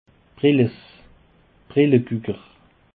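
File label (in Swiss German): "brelles", Alsatian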